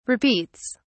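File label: "repeats", British English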